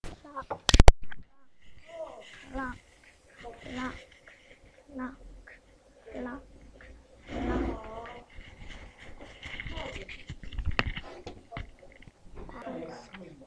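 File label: "clock", spoken word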